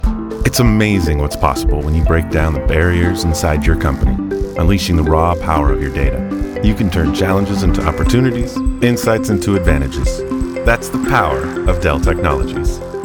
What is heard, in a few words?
Commercial; Dell Technologies